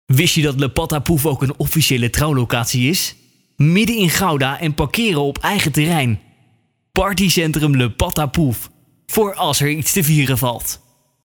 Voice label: commercial; le patapouf